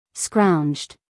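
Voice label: "scrounged", American English female; everyone-can-use-english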